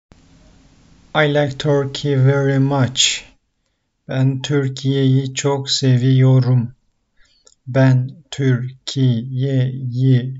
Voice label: "I like turkey very much", Turkish; the talking turkish phrasebook